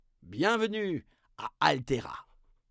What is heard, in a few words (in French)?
narrateur; bienvenue a altera; dialogue; La-Fabrik